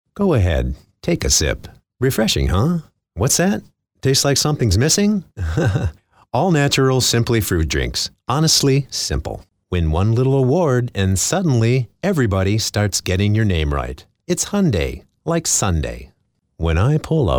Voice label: Dry

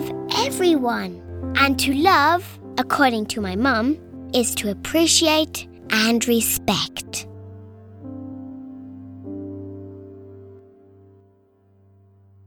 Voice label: Forest Reading WITH MUSIC

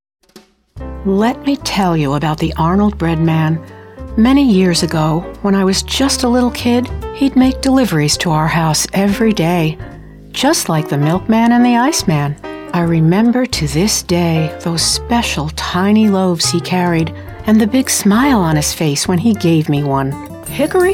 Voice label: Commercial

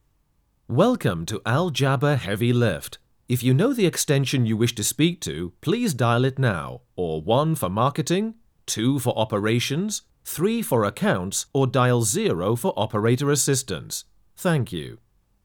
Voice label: telephony

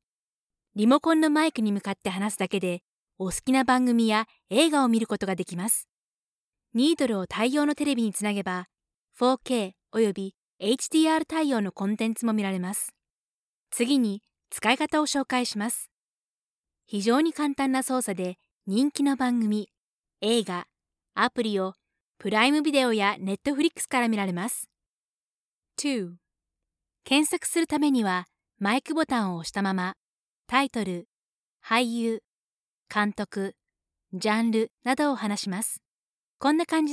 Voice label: Japanese; Amazon FRV

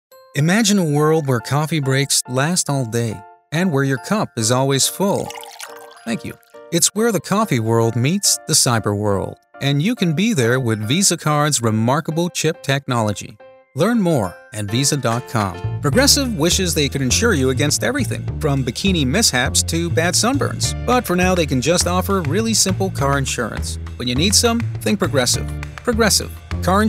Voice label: TRADITIONAL ANNOUNCER

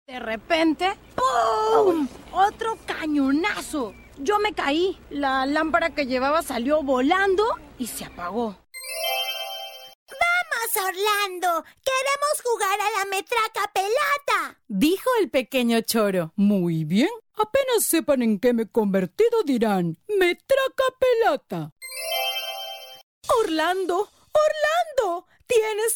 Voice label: Animation

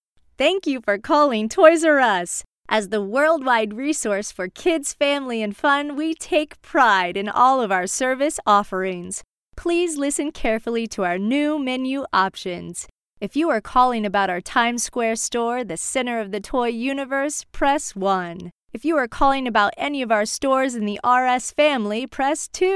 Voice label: telephony; toys r us

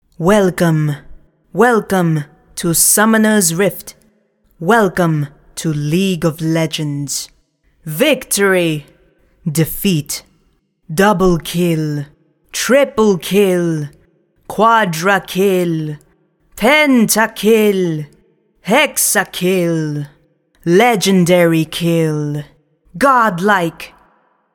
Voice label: ANNOUNC

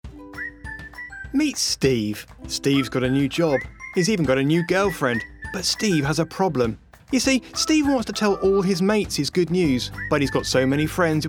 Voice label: Explainer

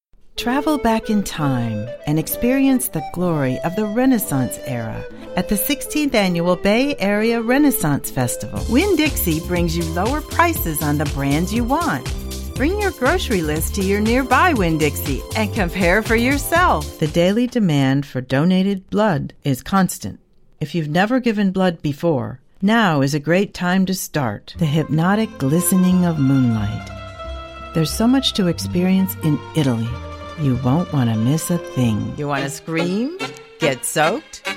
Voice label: Spots

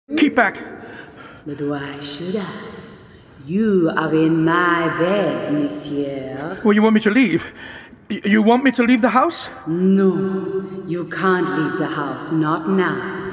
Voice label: French Ghost; Shadows House by the Sea

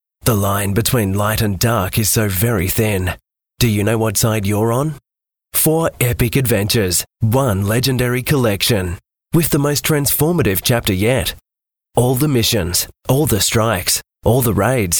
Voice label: Movie Trailer; Destiny

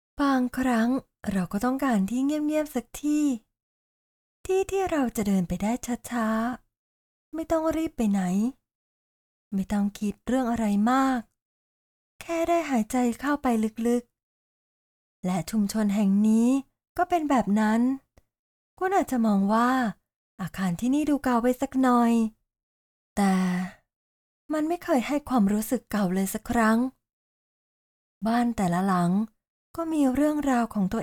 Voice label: Storyteller

